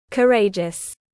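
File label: "Courageous", British English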